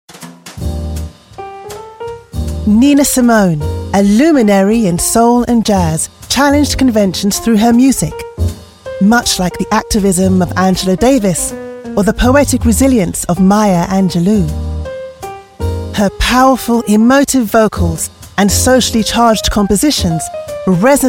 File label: Black UK English